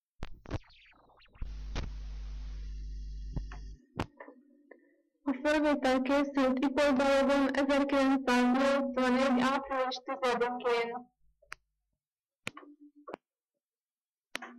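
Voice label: dialect collection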